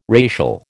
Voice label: "racial", American

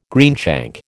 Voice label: "greenshank", American

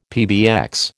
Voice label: "PBX", American

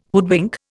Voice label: "hoodwink", Indian

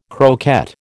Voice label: "croquette", American

